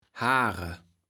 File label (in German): "Haam", Platt